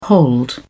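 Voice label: "hold up", British English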